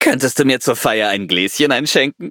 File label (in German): upgrade, de; Cookie Run